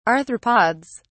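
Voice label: "arthropods", English, British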